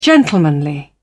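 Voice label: "gentlemanly", British English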